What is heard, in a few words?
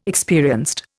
"experienced", Indian